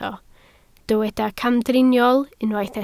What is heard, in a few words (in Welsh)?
"camdriniol", Welsh